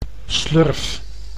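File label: "slurf", Dutch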